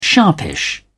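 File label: "sharpish", British English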